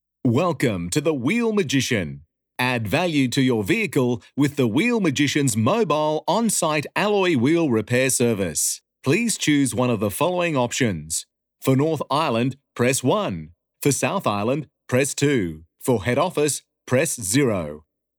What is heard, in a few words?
AU Male Voice Talent Phone